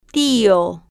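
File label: "tio", Spanish, female, slow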